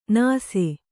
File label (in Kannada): "ನಾಸಿ", kannada